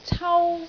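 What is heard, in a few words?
"cau1", Cantonese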